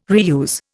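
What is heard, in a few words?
"reuse", Indian English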